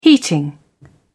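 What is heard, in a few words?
"heating", British English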